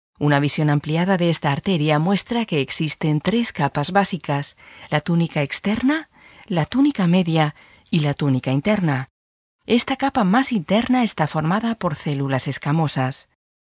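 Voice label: Spanish elearning, female; spansk-europa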